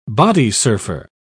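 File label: "bodysurfer", British English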